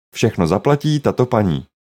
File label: will pay all, czech